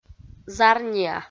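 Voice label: "зарниа", Komi, female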